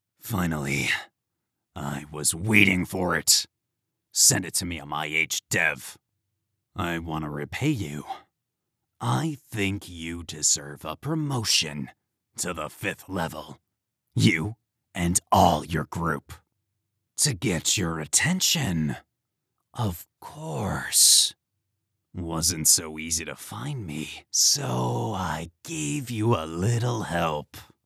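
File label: Villain Voice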